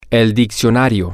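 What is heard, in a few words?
the dictionary, Spanish, male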